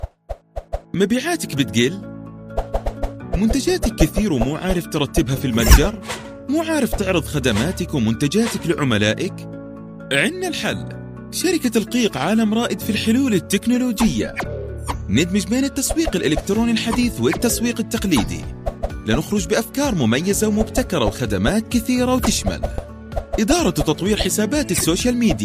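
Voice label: Arabic Commercial; voice demos; GoLocalise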